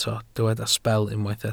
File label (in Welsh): "sbel" Welsh